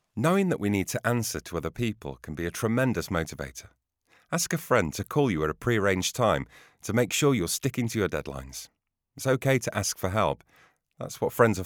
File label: english british e learning